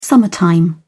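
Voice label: "summertime", British English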